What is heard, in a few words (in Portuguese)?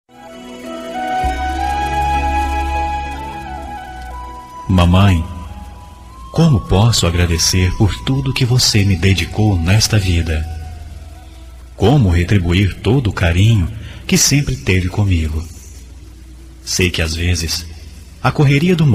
telemensagem dia das maes voz masculina